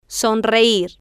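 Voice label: "sonreir", Spanish, female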